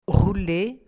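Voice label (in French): "rouler", French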